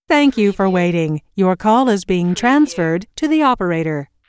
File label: US female phone prompt Thank you for waiting - Your call is being transferred to the opera; Thank you for waiting - Your call is being transferred to the operator; lynne-publishing